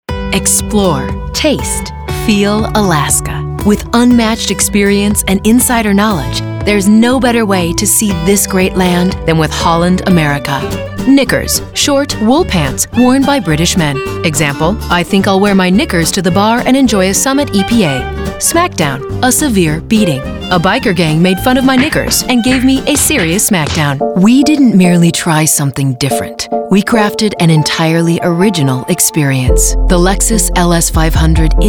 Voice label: Commercial Voiceover